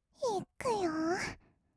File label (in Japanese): いくよ, kawaii voice; ASMR; 色々なワンショット